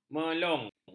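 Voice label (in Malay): "melung", Malay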